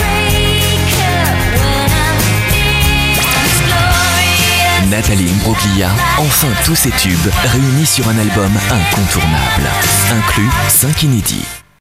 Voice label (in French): promo, Voix off disque